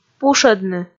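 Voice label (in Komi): "пушӧдны", Komi, female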